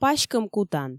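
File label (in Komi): паськӧм кутан, Komi, female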